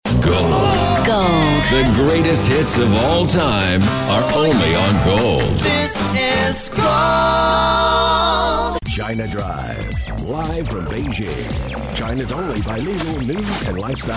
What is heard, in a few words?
deep american, imaging